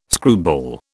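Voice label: "screwball", British English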